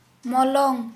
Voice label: Santali, forehead

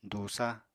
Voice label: "dùusa", Apatani

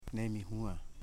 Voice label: "sow", Foe

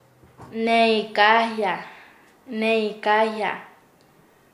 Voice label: "encargar", Nasa Yuwe

